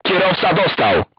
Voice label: voice message driver wounded, crew tanks polish

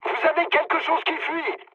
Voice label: tank damaged mood med, speech fr; War Thunder

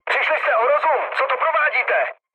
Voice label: player killed ally, speech cze, mood low

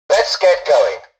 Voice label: tutorial dialogue, english; War Thunder

